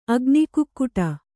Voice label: "ಅಗ್ನಿಕುಕ್ಕುಟ", Kannada

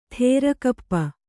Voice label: "ಥೇರಕಪ್ಪ", Kannada

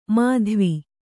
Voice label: "ಮಾಧ್ವಿ", kannada

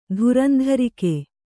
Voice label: "ಧುರಂಧರಿಕೆ", Kannada

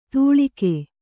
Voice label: "ತೂಳಿಕೆ", Kannada

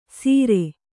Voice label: "ಸೀರೆ", Kannada

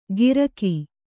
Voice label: "ಗಿರಕಿ", Kannada

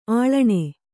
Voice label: "ಆಳಣಿ", Kannada

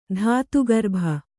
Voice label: "ಧಾತುಗರ್ಭ", Kannada

